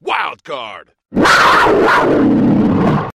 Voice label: Commercial Replacement